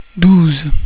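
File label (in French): "douze", French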